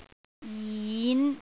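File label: "jin5", Cantonese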